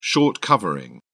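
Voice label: "short covering", British English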